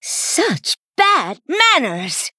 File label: Female Night Elf Attack Alt; Prologue Vashj